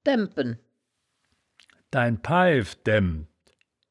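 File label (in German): "daembpen", wittlicher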